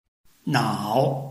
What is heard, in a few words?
"nao3", Chinese